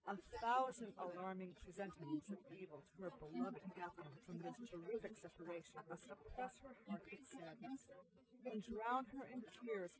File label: female4 inst sim; SiSEC08; SiSEC underdetermined